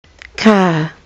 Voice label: "car", kiwi english